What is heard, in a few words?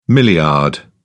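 "milliard", British English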